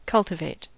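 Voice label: "cultivate", US English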